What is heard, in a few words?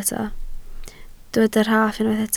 "rhaff", Welsh